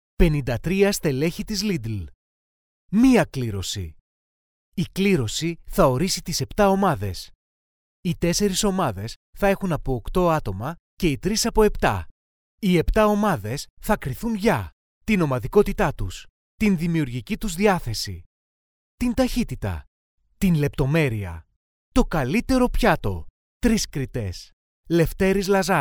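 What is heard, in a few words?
Greek, male, narration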